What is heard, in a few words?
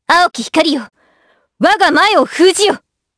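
Vox Skill, voices, Japanese; kingsraid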